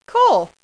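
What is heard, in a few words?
"cool" female; voice; Web Clip Empire 150,000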